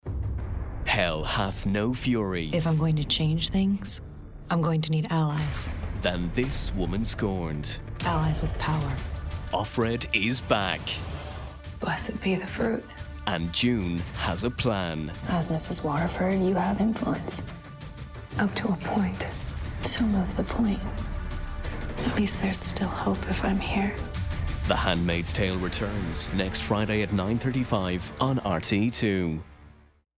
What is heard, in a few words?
english irish promos